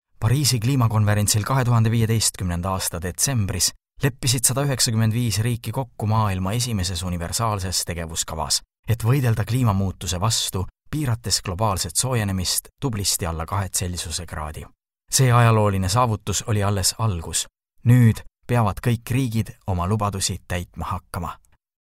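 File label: Estonian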